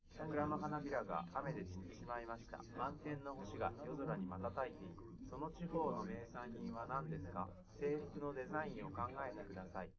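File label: male3 live recording 130ms 5cm, underdetermined; SiSEC11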